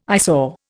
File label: "eyesore", Indian